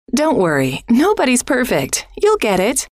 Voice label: don't worry nobody's perfect you'll get it, F

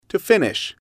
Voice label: "to finish", English

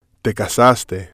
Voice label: "te casaste", spanish

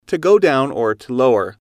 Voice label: "to go down lower", English

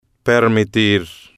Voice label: "permitir", spanish

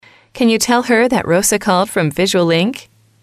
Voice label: can You Tell Her That X Called From Y, F

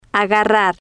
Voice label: "agarrar", spanish

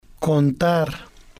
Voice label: "contar", spanish

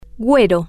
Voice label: "guero", Spanish

